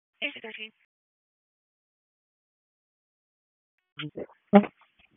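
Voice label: Police Northeast District Dispatch, p25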